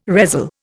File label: "resell", Indian